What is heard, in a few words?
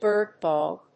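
"bird dog", English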